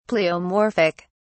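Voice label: "pleomorphic", British English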